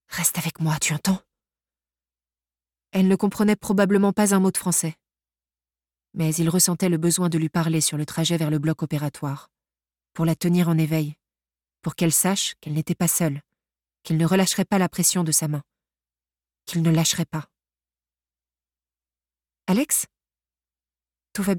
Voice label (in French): Livre audio, FR; Audiolib; La promesse d'une ile